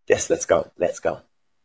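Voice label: Voice Acting, Spanish, male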